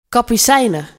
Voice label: Warning And Female Voice